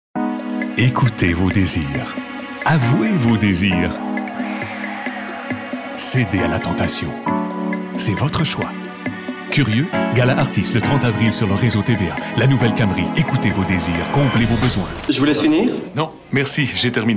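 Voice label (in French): French, male, commercial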